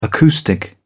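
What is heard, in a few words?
"acoustic", British English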